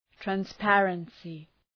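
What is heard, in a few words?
"transparency", English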